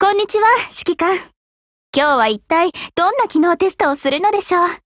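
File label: HELLO, Japanese; GF Alarm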